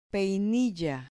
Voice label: "peinilla", Spanish